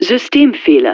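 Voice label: Systems Failure, German; Messages; Speech; Betty; DCS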